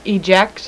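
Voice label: "eject", female voice; Web Clip Empire 150,000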